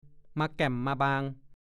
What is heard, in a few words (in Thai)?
"มาแก่มมาบาง", ภาษาโคราช; (สำนวน) เบียดเบียน